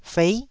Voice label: "fei9", taiwanese syllables

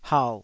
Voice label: "hau3", Taiwanese syllable

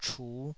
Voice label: "chu5", Taiwanese

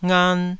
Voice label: "ngan2", taiwanese syllables

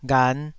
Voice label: "ghan5", Taiwanese syllable

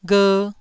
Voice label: "gher1", Taiwanese syllable

